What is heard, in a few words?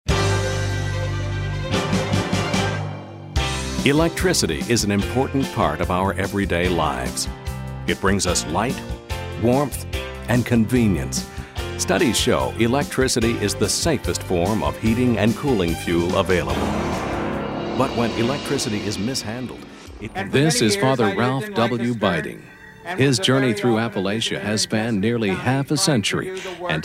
Narr